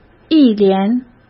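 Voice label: "一连", Mandarin Chinese; chinese flashcards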